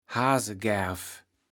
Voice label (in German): "Haasegärf", Platt